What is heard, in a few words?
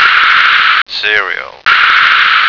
"serial", American